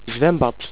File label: "SWIM POOL", Dutch